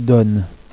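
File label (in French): "donne", French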